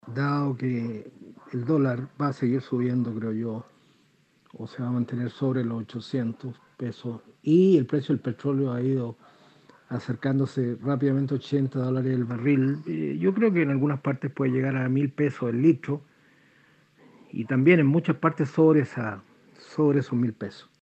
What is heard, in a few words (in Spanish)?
CUÑA